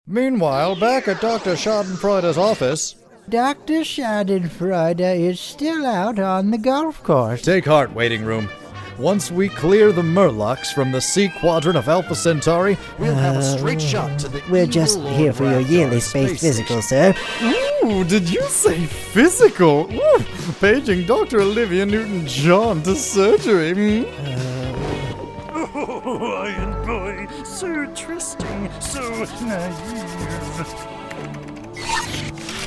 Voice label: animation, Voiceover